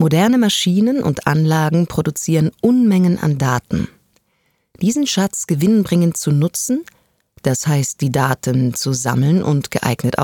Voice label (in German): Werbung